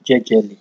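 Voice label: "jejely", Nigerian Pidgin, male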